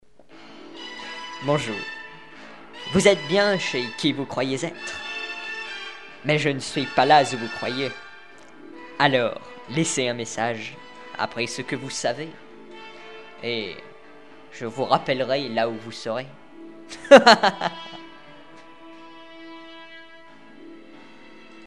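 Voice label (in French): mysterieux, Messages; répondeur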